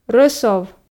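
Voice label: "рӧсов", Komi, female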